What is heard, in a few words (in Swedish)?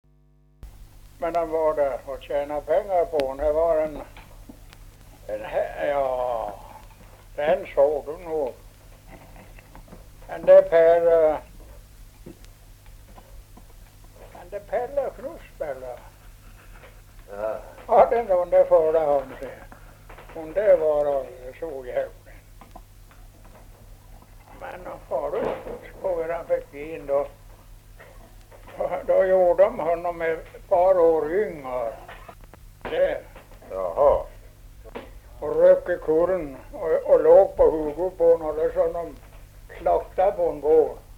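Ovansjö Gästrikland, dialekter; Folkminnen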